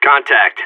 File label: radio enemy spotted; Counter Strike; CS:GO; seal